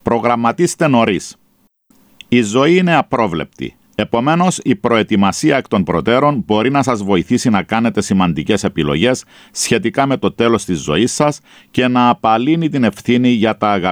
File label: Palliative Care Greek; Plan early